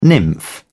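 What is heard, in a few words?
"nymph", English, British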